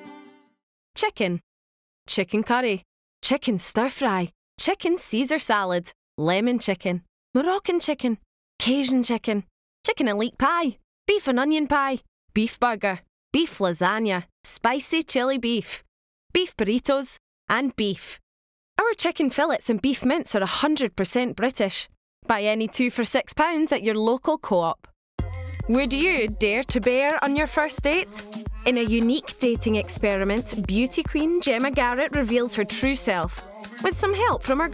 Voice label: female English Scottish accent commercial compilation